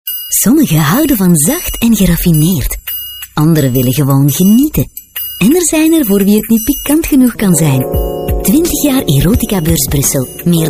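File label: flemish female commercial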